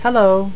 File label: "hello", voices